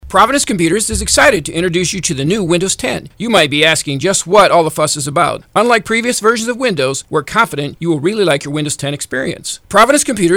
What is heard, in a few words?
Windows 10 promo; Provcom